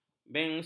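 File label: "bensin", Malay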